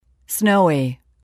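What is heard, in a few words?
"snowy", English word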